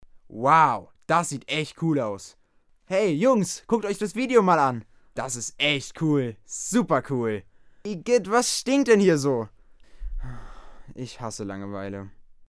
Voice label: emotion; Kindersprecher

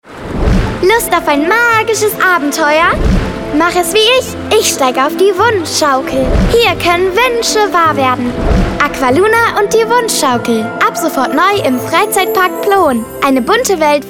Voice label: trailer freizeitpark

